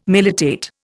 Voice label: "militate", Indian

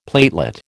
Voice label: "platelet", American

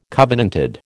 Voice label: "covenanted", American

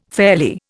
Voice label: "fairly", Indian

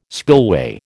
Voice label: "spillway", American

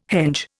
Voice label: "henge", Indian

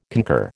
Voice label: "concur", American

